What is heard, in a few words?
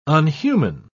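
"unhuman", British English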